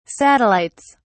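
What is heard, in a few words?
"satellites", British English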